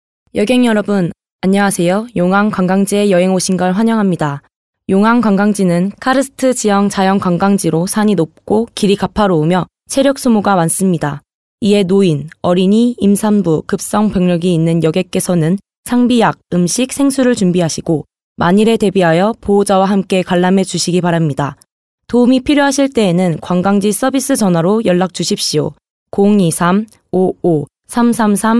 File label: FEMALE KOREAN